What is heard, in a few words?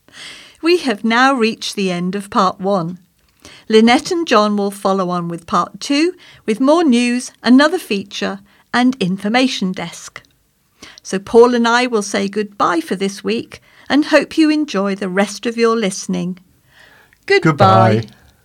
Closing Announcement